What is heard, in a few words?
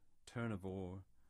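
"Turnavore" English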